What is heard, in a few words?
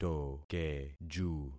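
"tokeju", concatenated syllables; Affixing experiment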